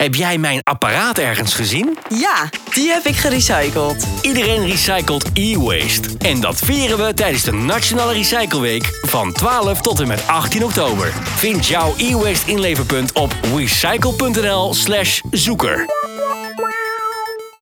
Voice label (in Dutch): SPOT; WECYCLE; RECYCLEWEEK